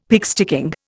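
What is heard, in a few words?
"pigsticking", Indian English; Dictionary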